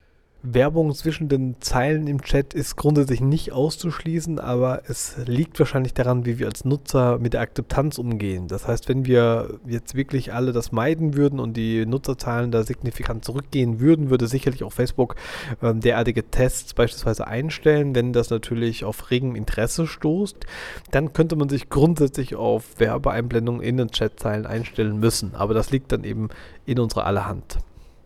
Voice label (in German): werbung